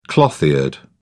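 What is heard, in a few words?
"cloth eared", British English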